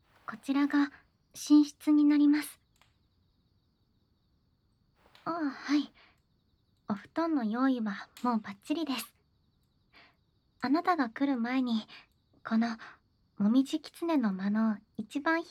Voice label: 添い寝; もてあそばれつくされちゃいました

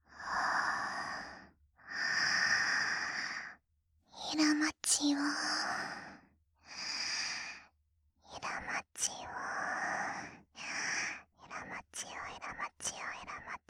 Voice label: 囁き淫語連呼; SEあり

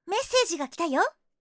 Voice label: メッセージが来たよ！, システムボイス; 割と使えるシステムボイス

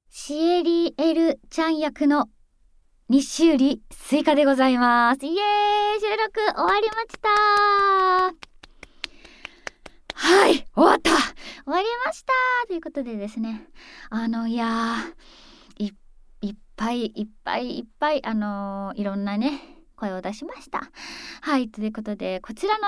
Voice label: フリートーク

